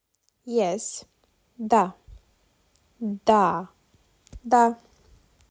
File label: "Yes", romanian